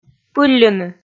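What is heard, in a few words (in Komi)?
"пылльыны", Komi, female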